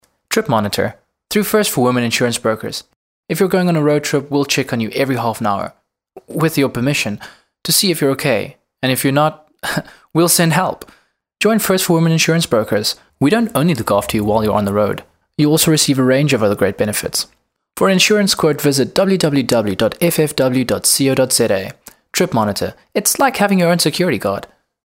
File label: hard sell